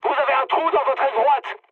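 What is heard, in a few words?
right wing, mood medium, French; War Thunder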